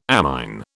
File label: "amine", British